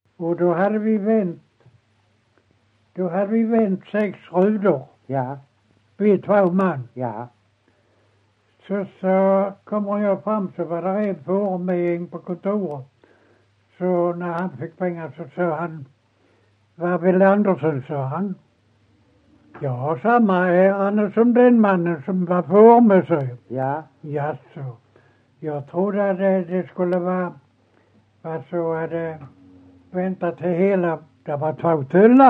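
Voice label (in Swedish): Ekeby Skåne, dialekter, svenska sagor; Folkminnen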